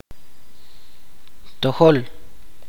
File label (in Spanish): recto, chuj